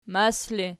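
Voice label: "mas" ergative, Archi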